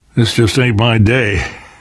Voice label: going to die light, left4dead2 survivor voice namvet; source engine